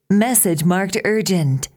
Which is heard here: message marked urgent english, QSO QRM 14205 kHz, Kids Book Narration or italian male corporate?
message marked urgent english